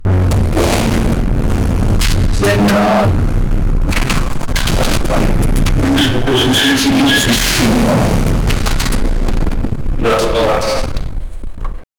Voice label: voice of a female artific; artificial intelligence